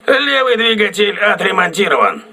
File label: voice message left engine repaired, crew ships russian